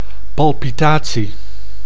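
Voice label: "palpitatie", Dutch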